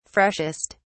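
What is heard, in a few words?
"freshest", British English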